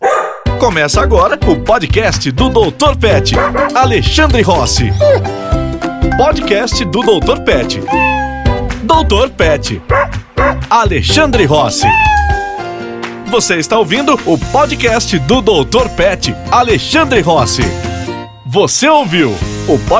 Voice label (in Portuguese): podcast vinhetas audio branding; petz; dr pet